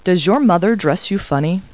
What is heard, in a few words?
people, kid